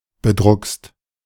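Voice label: "bedruckst", German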